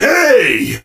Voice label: hurt vo; 瑟奇